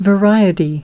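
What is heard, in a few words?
"Variety", English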